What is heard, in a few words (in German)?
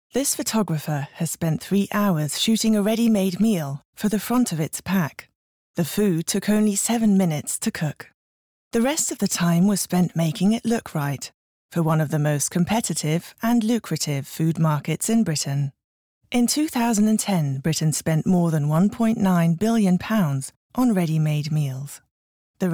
Doku Englisch; Ready Meals